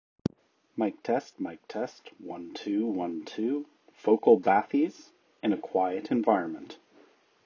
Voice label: Focal Bathys Quiet

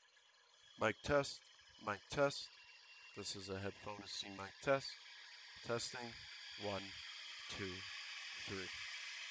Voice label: Mic Noisy Environment; HyperX Cloud Alpha S

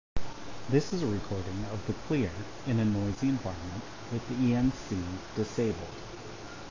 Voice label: Noisy No ENC

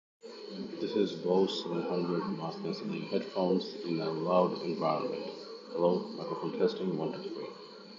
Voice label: bose 700nc noisy

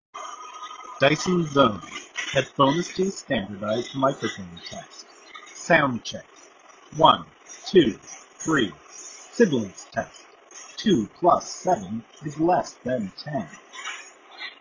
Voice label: Dyson Zone Noisy

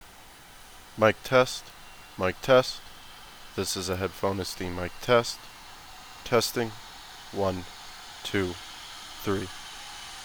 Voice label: Loud Environment; GSP 302

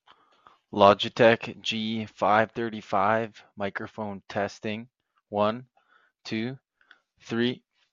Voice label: Microphone Test; Logitech G535

